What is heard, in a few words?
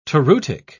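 "toreutic", British English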